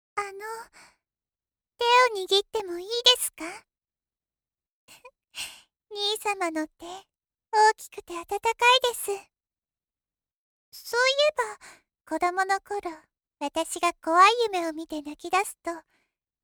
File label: female